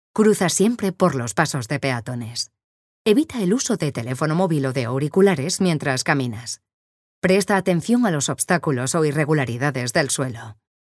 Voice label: spanish female